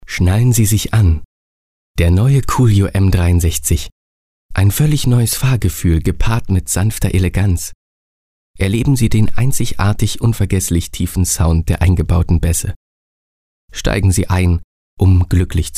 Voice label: Autowerbung

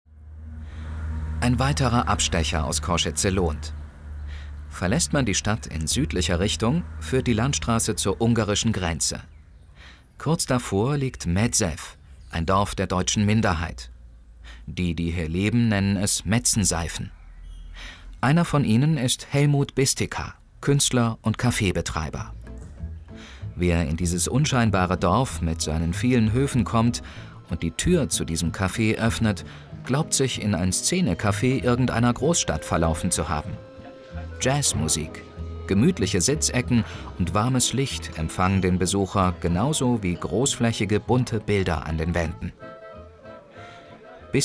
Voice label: Radiofeature

